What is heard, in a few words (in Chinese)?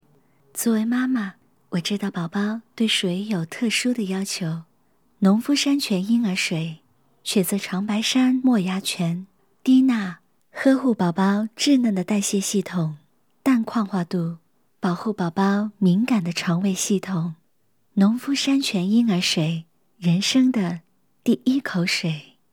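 女粤16 港式粤语广式粤语 广告Commercials 娓娓道来 积极向上 时尚活力 亲切甜美; 矿泉水 干音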